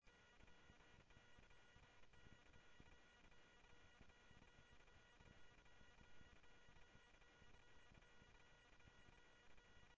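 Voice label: Simulcast Chandler Patrol A, scanner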